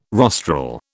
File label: "rostral", British